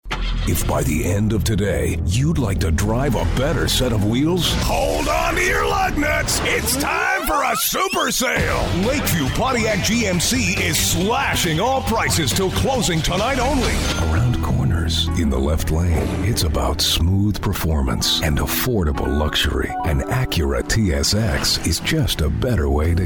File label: Auto Dealer Male